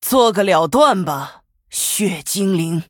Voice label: Female Human; Valeera; zh

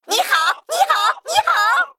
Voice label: Male Mech Picked; zh

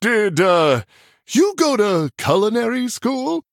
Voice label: Male Human Well Played; en

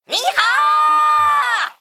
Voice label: Male Mech Attack, zh

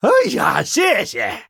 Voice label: Male Human Thanks, zh

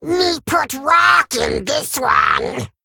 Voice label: Male Kobold Play; en

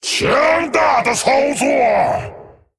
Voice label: Male Dragon WOW; zh

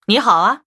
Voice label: Greetings, zh

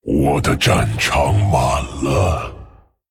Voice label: Male Dragon ERROR FULL MINIONS; 满场七随从; 我的战场满了！; 风暴巨龙迦拉克隆（英雄）; Too many minions; My board is too full.; zh

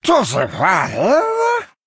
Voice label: Male Murloc Wow; zh

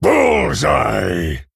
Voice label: Male Orc Ogre Attack; en

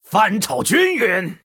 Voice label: Male Human Attack, zh

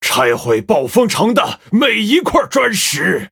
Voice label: Male Human, zh; Play

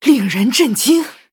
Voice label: Wow, zh

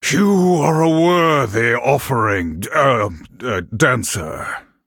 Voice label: Male NightElf Well Played

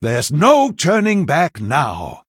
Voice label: Male Human Start; en

